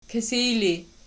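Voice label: "kisij" ergative, Archi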